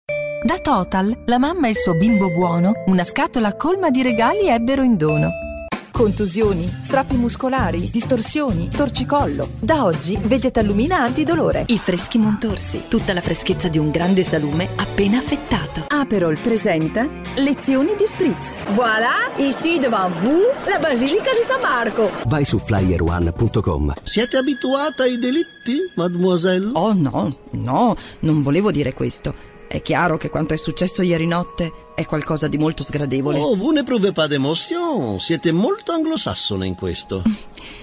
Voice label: italian female montage